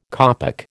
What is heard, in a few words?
"kopek", American